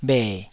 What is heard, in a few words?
"bhe3", taiwanese